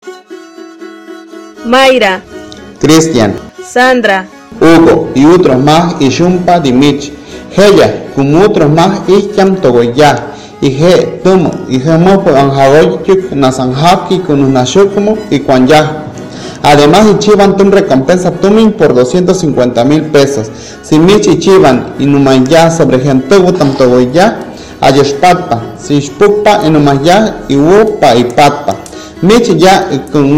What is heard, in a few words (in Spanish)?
Popoluca; FI San Andrés Tuxtla